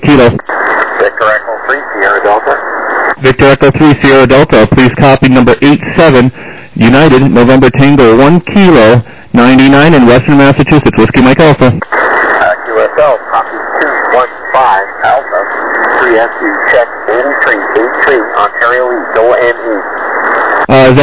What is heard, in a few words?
SSB LSB 7MHz